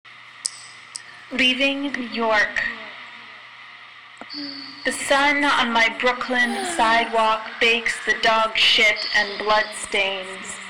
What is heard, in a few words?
poem